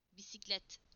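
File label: "bicycle", Turkish; PictureDictionary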